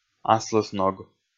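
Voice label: "аслысног" Komi, male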